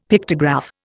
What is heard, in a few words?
"Pictograph", Indian; Dictionary